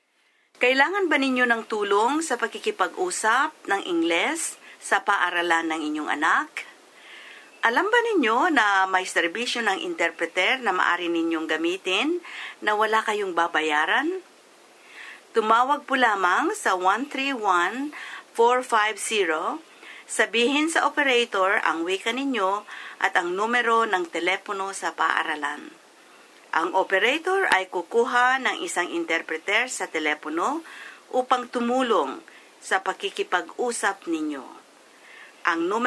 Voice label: filipino; public schools; going to a public school